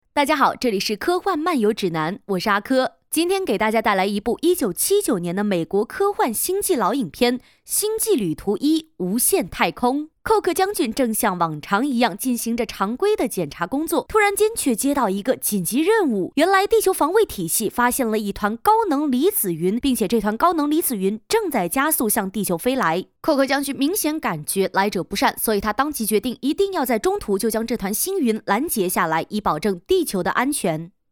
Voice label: 女,科幻电影解说,讲述感

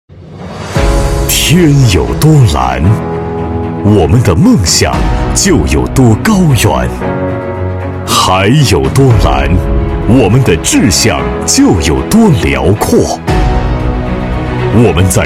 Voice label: 男 激情有力军队宣传片

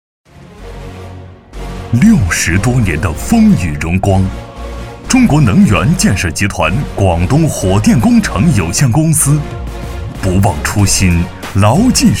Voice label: 男 专题 电力

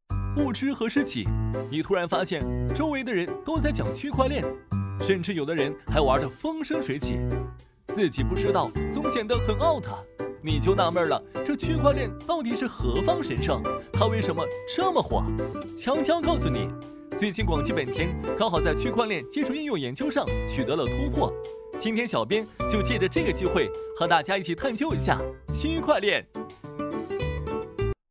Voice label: 男 MG; 广汽本田

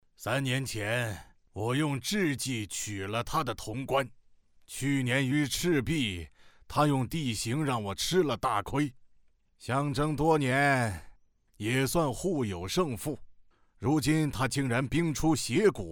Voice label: 角色语速稍快; 迪迦

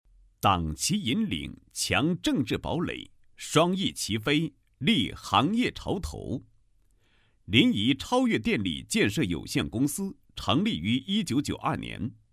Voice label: 男, 企业党建; 临沂超越电力建设有限公司党建工作配音

